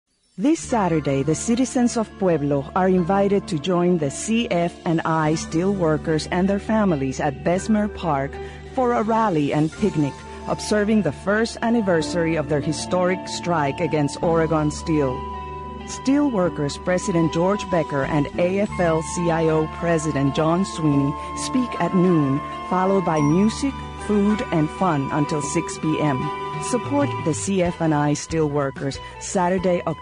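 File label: english, voice over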